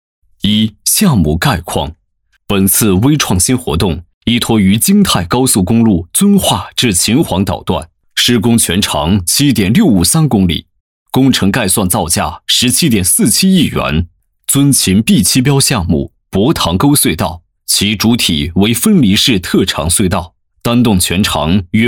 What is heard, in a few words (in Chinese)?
男 PPT解说, 年轻稳重 大气浑厚